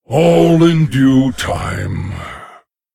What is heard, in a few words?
Male Lich Thinking; en